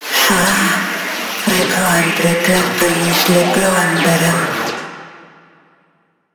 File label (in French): Intro, fre; Yeesha; moul